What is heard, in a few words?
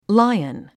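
"lion", English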